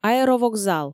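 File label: "аэровокзал" Komi, female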